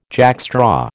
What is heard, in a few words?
"jackstraw", American